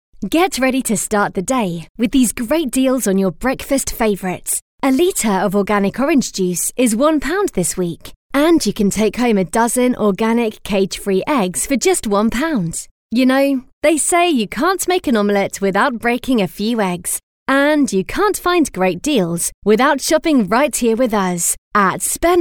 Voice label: Casual Conversational